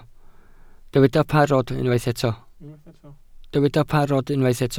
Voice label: "parod", Welsh

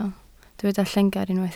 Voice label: "llengar", Welsh

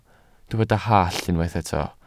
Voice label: "hallt", Welsh

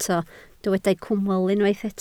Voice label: "cwmwl", Welsh

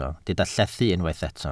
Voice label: "llethu", Welsh

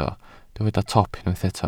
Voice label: "top", Welsh

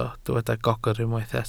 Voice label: "gogr", Welsh

